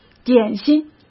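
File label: "点心", Mandarin Chinese; chinese-flashcards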